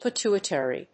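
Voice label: "pituitary", English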